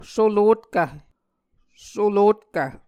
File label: "solotkv", Muscogee